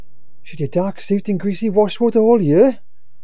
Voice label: surprise

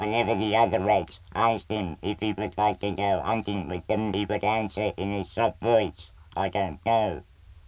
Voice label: Patient noisy

